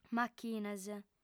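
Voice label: "machines", female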